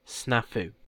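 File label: "snafu", British English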